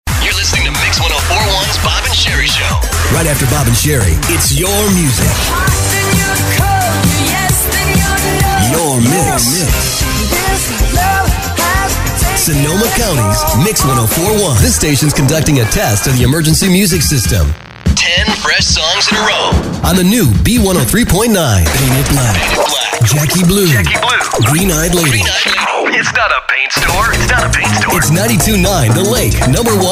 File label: Voiceover, men